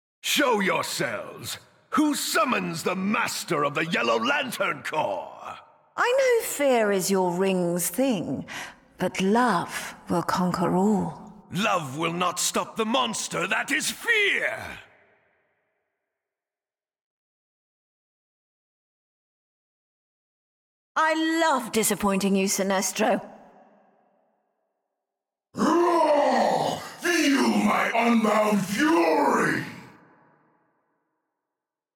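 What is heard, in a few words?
dialogue, game intro, English; lego batman 3 beyond gotham gamerip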